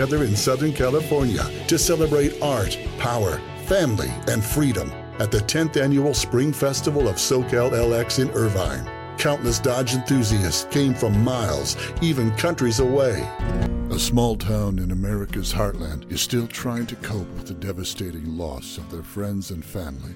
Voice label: Male Voice Over Talent Broadcast Narration

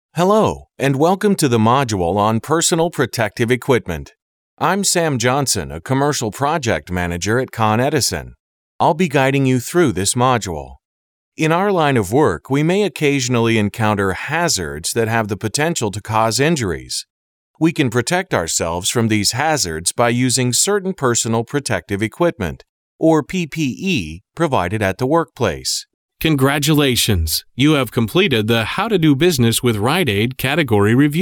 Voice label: Male Voice Over Talent E Learning

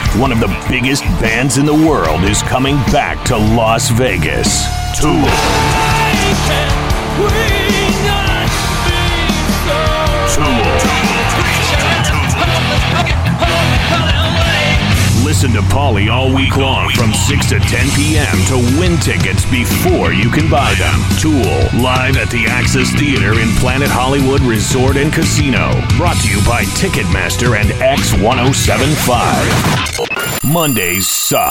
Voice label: Male Voice Over Radio Imaging Rock

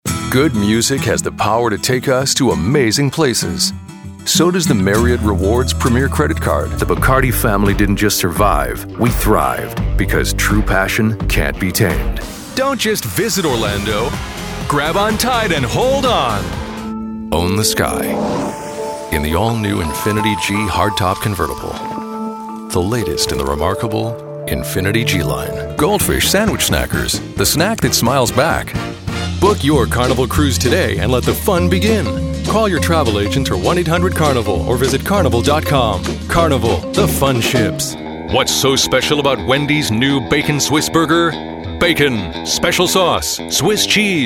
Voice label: Male Voice Over Talent Commercial

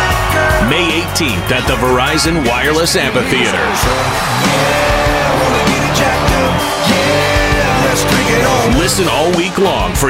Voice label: Male Voice Over Radio Imaging Country

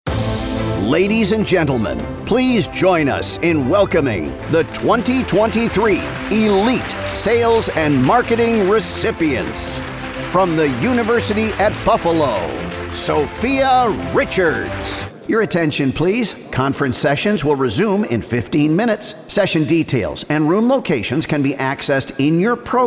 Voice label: Voice of God Live Announce, Male Voice Over

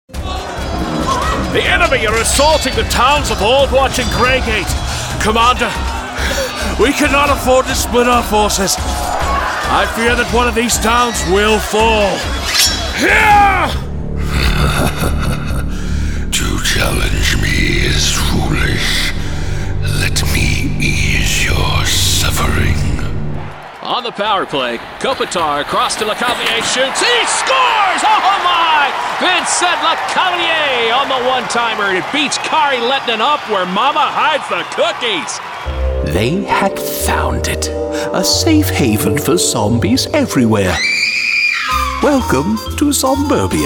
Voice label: Male Voice Over Video Game

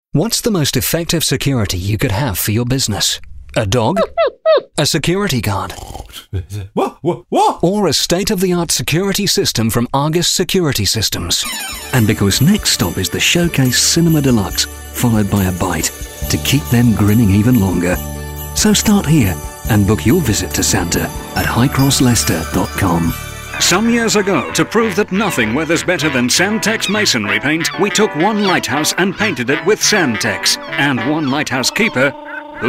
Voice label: Male Voice Over Talent British Radio

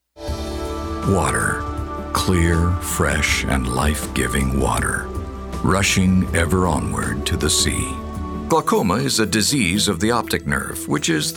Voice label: Male Voice Over Talents Narration

